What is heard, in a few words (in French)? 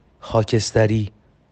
Gris Farsi